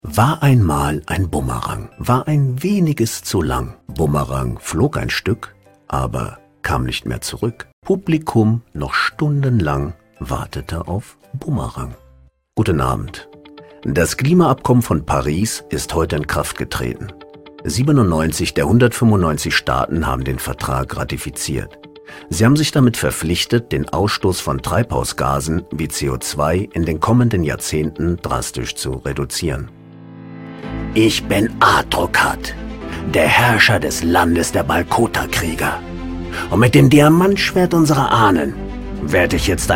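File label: German, male; lektoring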